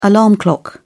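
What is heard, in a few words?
"alarm clock", British English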